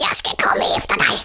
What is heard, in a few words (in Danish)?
"I'll get you", Danish